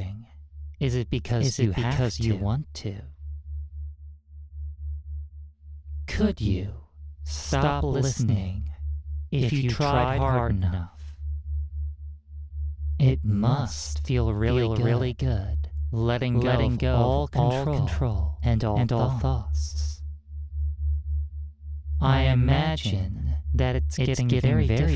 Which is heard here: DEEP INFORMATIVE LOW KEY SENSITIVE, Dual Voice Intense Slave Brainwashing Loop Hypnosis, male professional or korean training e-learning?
Dual Voice Intense Slave Brainwashing Loop Hypnosis